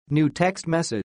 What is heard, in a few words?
voice text message ringtone